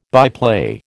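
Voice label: "byplay", American English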